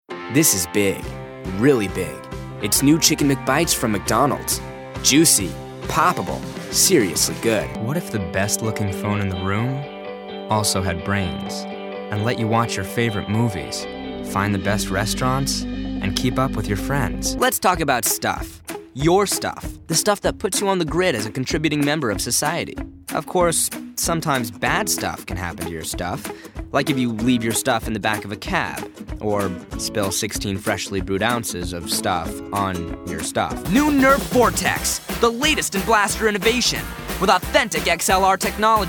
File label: Voiceovers